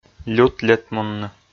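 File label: "лют-летмунны" Komi, male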